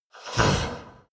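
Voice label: demon short expressions, creatures; kreaturen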